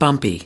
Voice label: "bumpy", us